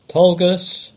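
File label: "Tolgus" English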